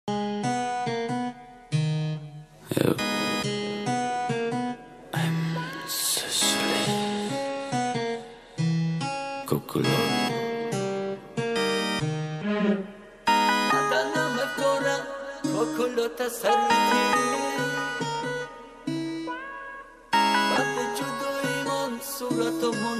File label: rap